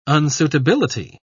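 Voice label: "unsuitability", British English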